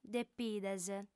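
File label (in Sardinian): "depides", female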